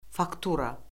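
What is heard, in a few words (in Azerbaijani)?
"faktura", Azerbaijani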